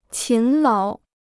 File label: "勤劳", Chinese